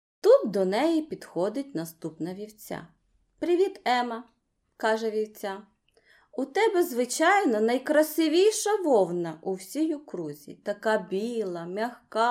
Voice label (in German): ukrainisch